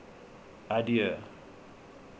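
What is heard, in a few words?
"adieu", Canadian, male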